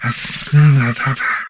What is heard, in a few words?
humanoid portrait male; Stellaris; humanoids